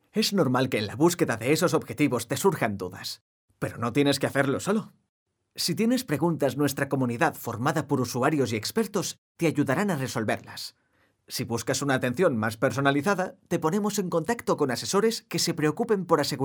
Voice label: spanish spain male